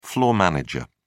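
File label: "floor manager", British English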